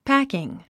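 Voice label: "packing", English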